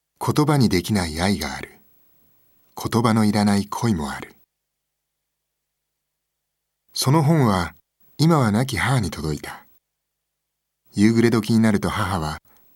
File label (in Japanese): 映画CM